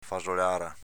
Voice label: "fasolara", dialetto veneto

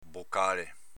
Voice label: "bocale", dialetto veneto

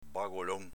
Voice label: "bagolon", dialetto veneto

